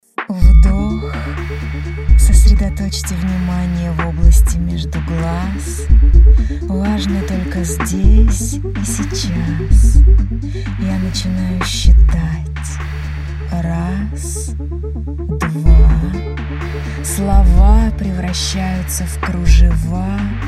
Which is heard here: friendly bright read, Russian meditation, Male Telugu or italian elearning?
Russian meditation